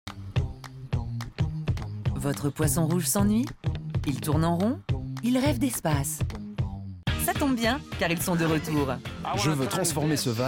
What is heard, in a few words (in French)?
SPOT; ROIS DES AQUARIUMS